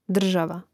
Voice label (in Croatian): "država", hrvatski; mreznik